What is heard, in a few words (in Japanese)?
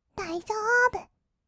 "だいじょーぶ！", kawaii voice; ASMR; 色々なワンショット